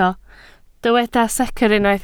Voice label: "secr", Welsh

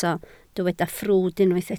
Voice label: "ffrwd", Welsh

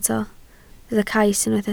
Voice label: "cais", Welsh

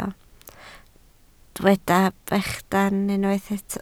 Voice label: "bechan", Welsh

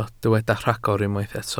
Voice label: "rhagor", Welsh

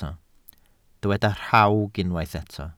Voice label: "rhawg", Welsh

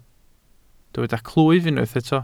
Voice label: "clwyf", Welsh

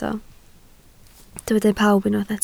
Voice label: "pawb", Welsh

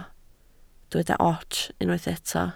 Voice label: "ots", Welsh

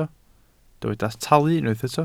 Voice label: "talu", Welsh

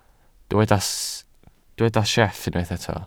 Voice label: "sieff", Welsh

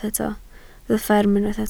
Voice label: "fferm", Welsh

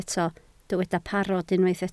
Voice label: "parod", Welsh

